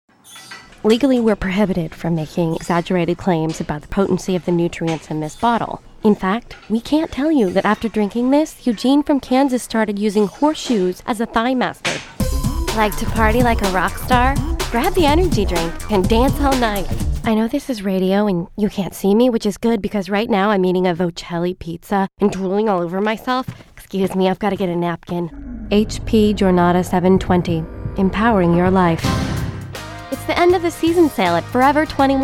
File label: commerical